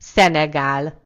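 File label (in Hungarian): "senegal", Hungarian; gcompris; geography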